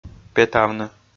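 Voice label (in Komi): "петавны", Komi, male; audio dict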